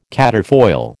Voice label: "quatrefoil", American

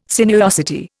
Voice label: "sinuosity", Indian

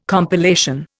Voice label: "compilation", Indian

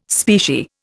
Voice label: "specie", Indian

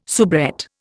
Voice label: "soubrette", Indian

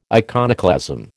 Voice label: "iconoclasm", American

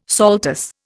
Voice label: "saltus", Indian

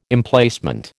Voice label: "Emplacement", American

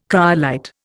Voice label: "cryolite", Indian

